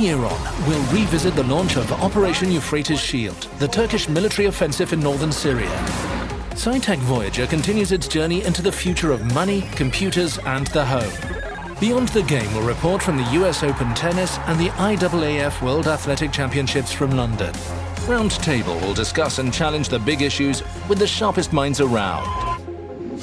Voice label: news promo